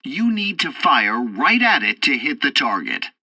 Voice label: tutorial fighter fight, english; War Thunder